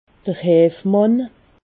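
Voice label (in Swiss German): "heefmann", Alsatian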